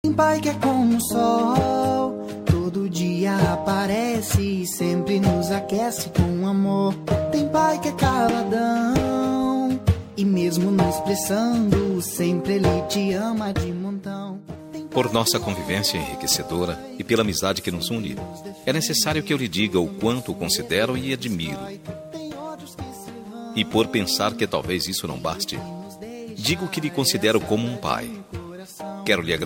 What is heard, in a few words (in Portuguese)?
masc consideracao, voz masculina; Dia dos Pais